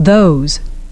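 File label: "those", English pronunciation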